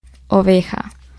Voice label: sheep, es; gcompris

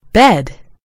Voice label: "bed", en GB; gcompris

